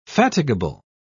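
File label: "fatigable", British English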